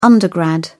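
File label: "undergrad", English, British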